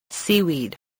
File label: "seaweed", english spelling